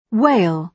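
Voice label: "whale", British English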